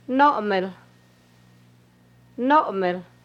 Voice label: He s throwing serval things down, hupa

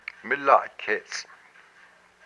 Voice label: "claw", hupa

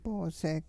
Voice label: cat, hupa

